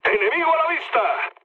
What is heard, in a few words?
enemy detected mood low; War Thunder